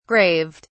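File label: "graved", British English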